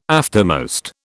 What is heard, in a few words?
"aftermost", British